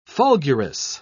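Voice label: "fulgurous", British English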